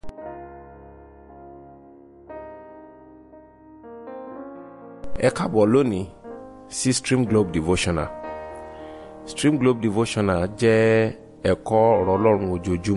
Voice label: Devotional Audio Yoruba